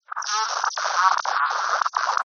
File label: radio message fragment unintelligible distorted voice male communication; scrambled; Skyclad Sound